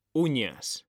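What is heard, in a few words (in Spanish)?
"uñas", Spanish, male; mekanta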